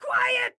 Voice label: Quiet, Excited Guy